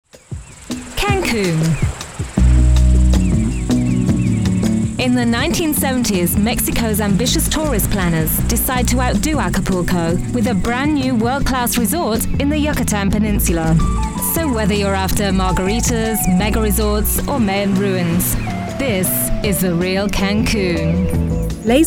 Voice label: NARRATION mono

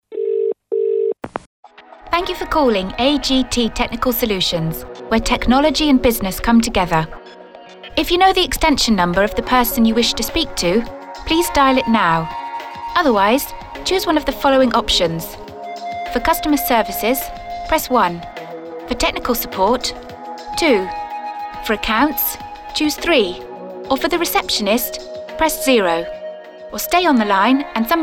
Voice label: Telephone Menu

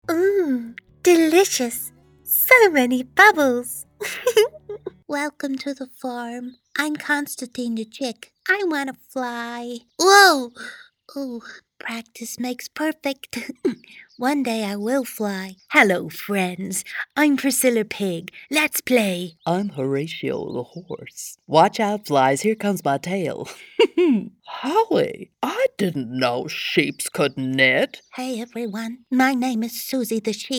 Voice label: Children Animal Characters

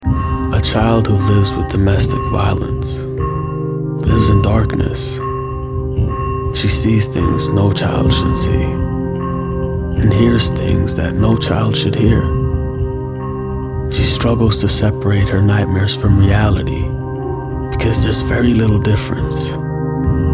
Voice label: Domestic Violence PSA

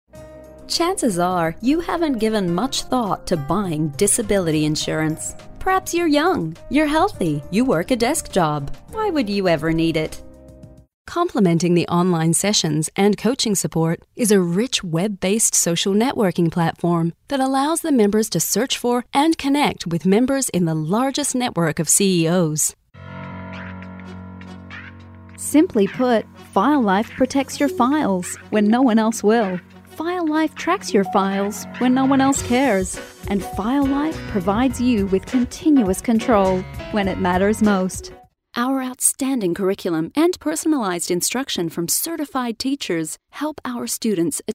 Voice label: US Corporate Narration VO

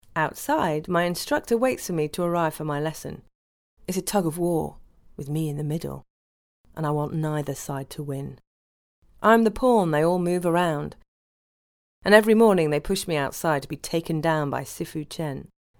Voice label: audiobook

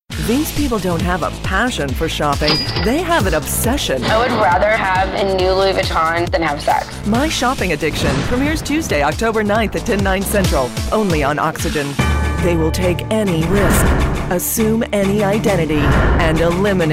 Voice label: PROMO

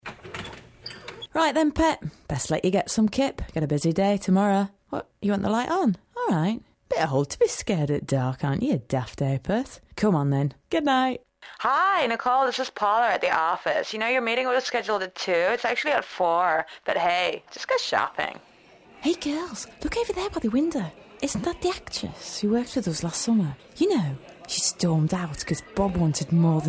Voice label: Accents

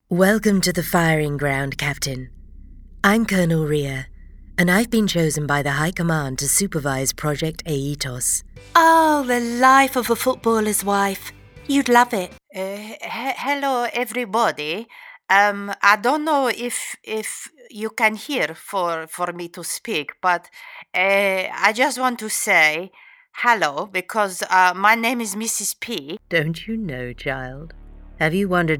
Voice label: Characters Adult

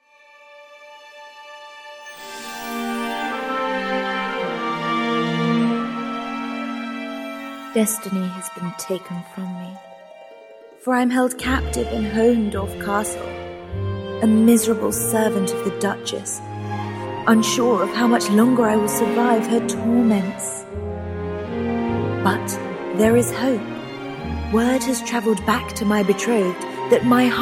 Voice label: Narratives Showreel

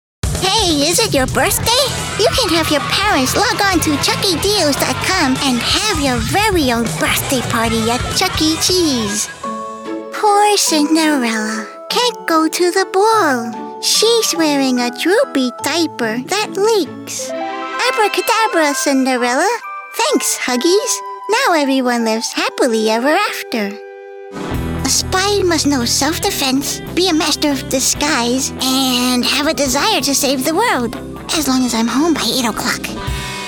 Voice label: Kid Char Comm

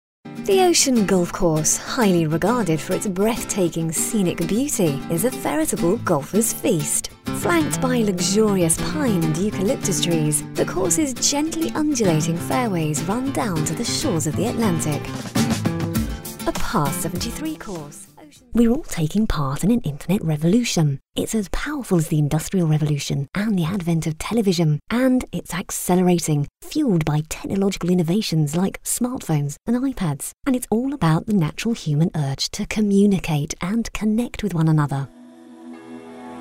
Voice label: NARRATION

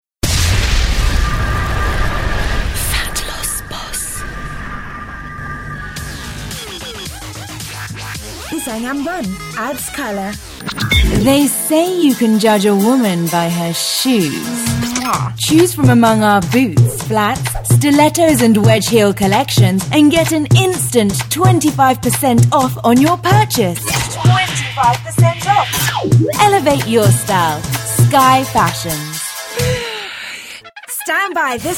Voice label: Commercial

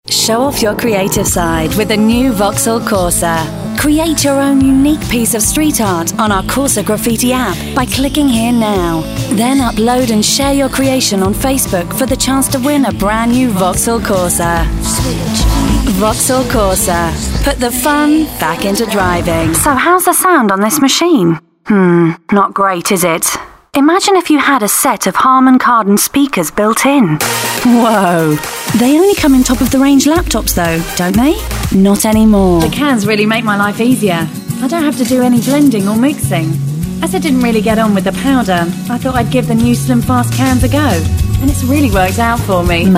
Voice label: Commercial

